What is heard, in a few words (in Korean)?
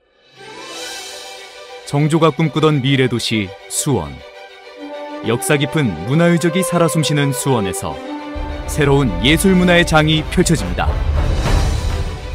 깔끔한 홍보 나레이션